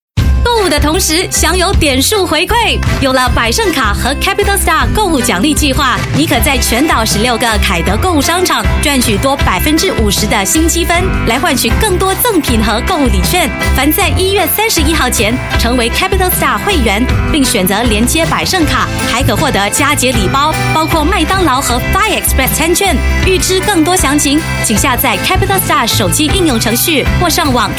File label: Mandarin Female; China; Peoples Association